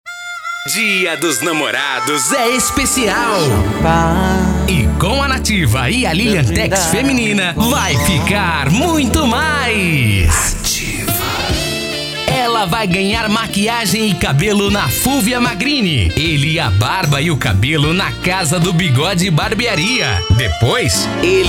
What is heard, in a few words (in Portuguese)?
chamada promocional